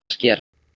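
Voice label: "sker", Proto-Indo-European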